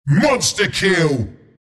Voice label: announcer kill monster; killing spree; dota; announcer dlc bristleback